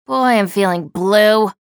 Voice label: chatter negative; slash; respawn

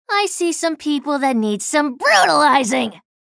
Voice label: chatter neutral; slash

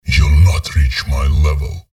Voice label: chatter neutral, en; visor

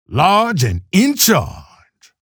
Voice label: chatter positive; keel; frag

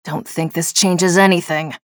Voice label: chatter negative; nyx; respawn

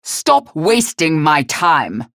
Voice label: chatter negative; galena; respawn

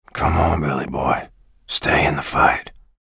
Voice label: chatter negative; bj blazkowicz; respawn

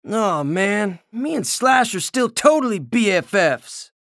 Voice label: chatter negative; anarki; respawn

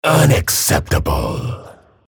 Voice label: chatter negative; respawn

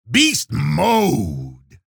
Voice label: chatter neutral; keel

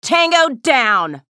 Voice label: chatter positive; athena; frag

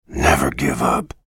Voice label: chatter negative; bj blazkowicz

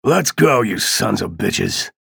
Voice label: chatter positive; ranger; frag

